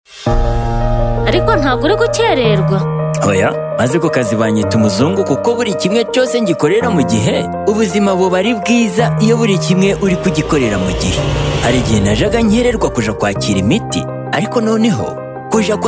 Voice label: Time Up HIV Spot ART Adherence Challenges Rufumbira; radio spot